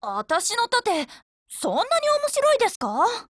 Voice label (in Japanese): JP; 97typeSMod